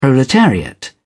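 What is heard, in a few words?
"proletariat", British English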